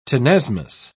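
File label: "tenesmus", English, British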